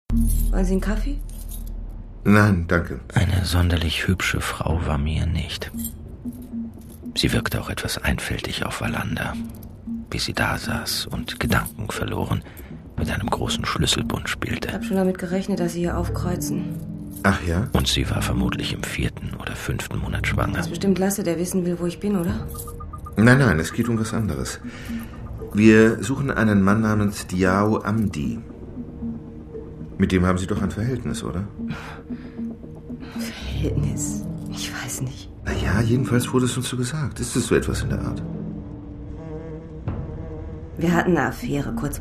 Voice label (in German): hoerspiel; LOFT VOICES